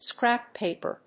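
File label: "scrap paper", English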